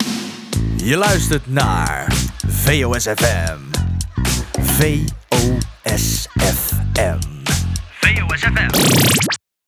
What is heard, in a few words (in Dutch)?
Jingle Je luisterd naar; VOSFM